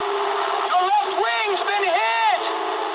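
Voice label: English left wing mood high; War Thunder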